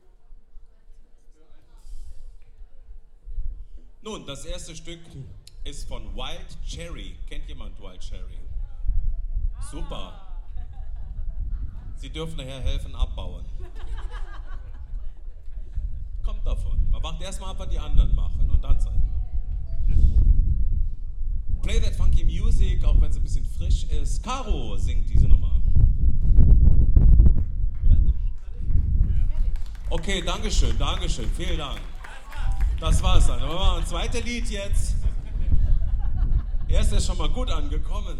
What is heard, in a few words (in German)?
Ansage, Konzert; Boemundhof, Saarburg